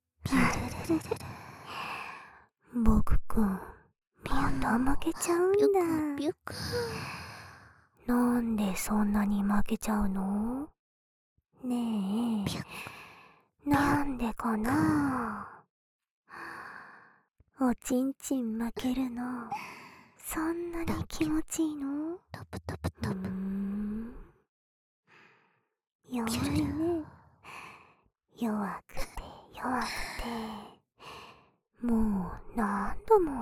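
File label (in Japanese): 言葉責め&オノマトペ; 夏美言葉責め&冬華オノマトペ; バイノーラル/KU100; 性悪姉妹のいじわるおっぱい性教育～ボクのちんちん躾けちゃうね♪～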